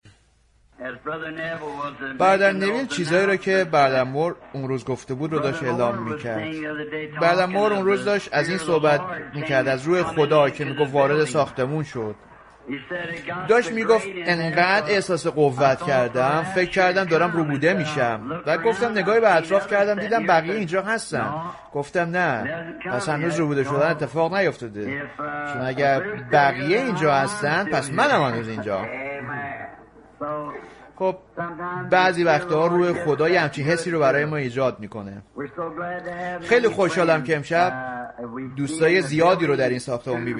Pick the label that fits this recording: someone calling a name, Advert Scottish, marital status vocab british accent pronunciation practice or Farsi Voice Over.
Farsi Voice Over